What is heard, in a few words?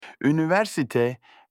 university in turkish